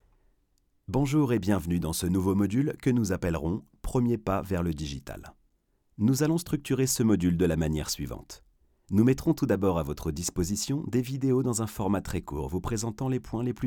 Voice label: e-learning, French, male